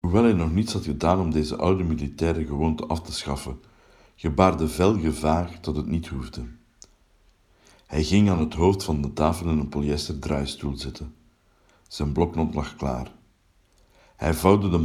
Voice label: Flamish